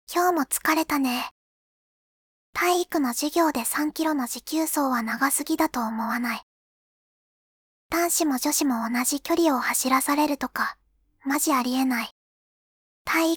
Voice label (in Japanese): 少女に金蹴りされるボイス; 透けブラを見て